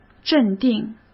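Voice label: "镇定", Mandarin Chinese; hsk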